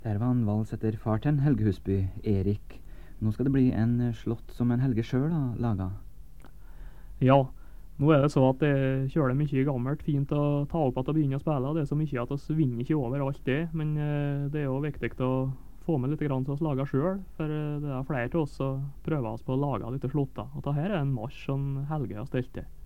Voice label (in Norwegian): Tale